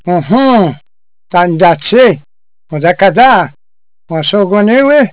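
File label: ohoo ta ndachi odakada, ABENAKI CONVERSATION; Ôhôô! Ta nd’achi. Odakada wasogweniwi?; Yes! And I also. Let us visit for a few days?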